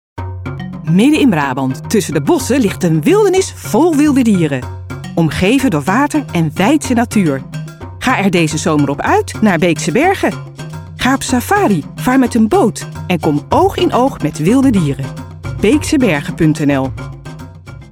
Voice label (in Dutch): Corporate; BeekseBergen